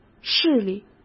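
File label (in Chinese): "势力", Mandarin Chinese; hsk; chinese-flashcards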